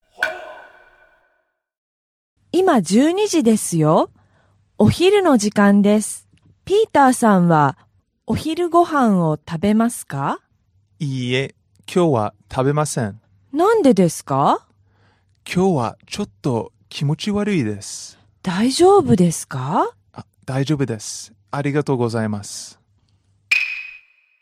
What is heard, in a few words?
dialog; JapanesePod101; Beginner Season 1